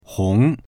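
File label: "hong2", Mandarin Chinese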